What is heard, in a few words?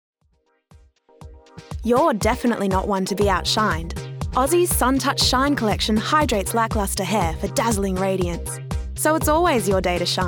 Voice Over; Demo